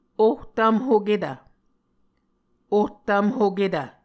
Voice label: "ohtvmhoketv", Muscogee